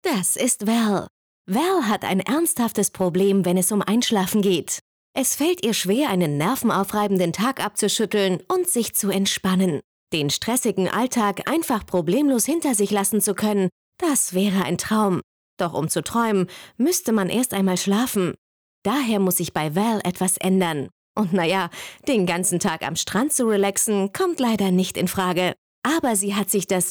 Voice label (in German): videovertonung; dreampad